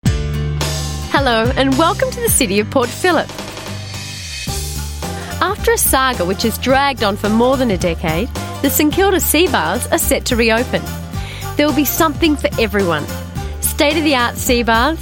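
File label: AU English Corporate Reads, Australian English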